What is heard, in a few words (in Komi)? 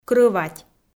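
"крӧвать", Komi-Zyrian, female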